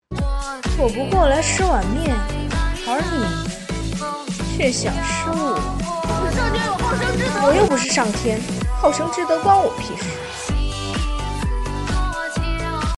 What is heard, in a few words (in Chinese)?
动画音频